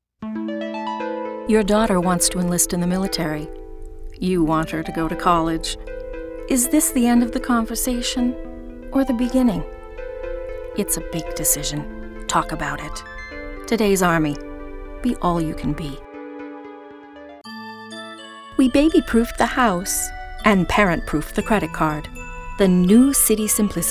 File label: Commercial Voice Overs